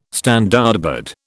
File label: "Standardbred", British